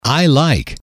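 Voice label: "I like", English, male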